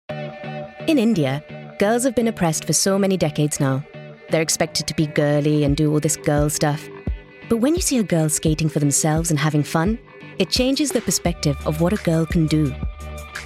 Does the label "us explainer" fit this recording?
No